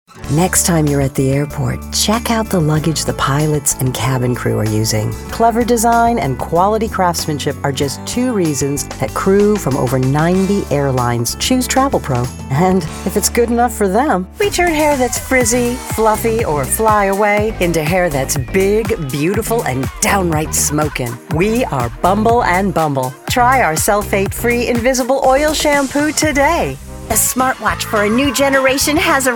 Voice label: Commercial Showreel; Voice Over